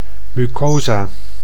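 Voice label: "mucosa", Dutch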